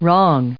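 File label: "wrong", English, US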